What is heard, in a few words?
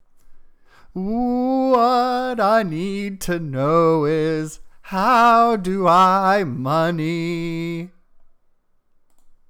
bad voice